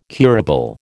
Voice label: "curable", American